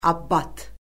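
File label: "abbat", Azerbaijani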